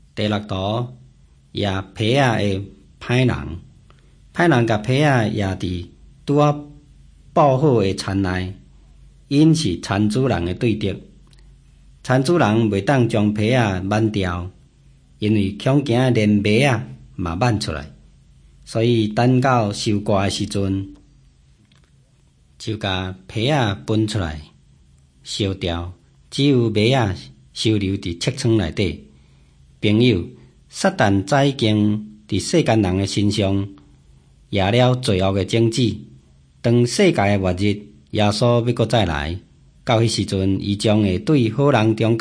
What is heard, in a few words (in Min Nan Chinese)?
taiwanese, drama; hokkien; taiwanese min nan todays taiwanese version nt drama; jesus teacher and healer